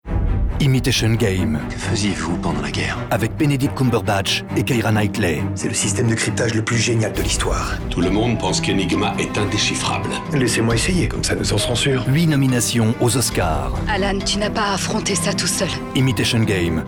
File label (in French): suspense intense; ImitationGame